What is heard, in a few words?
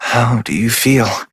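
Voice, English; New War; Epilogue; Operator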